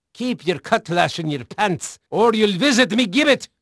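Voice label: Dutch male, voice; Pirates Of The Caribbean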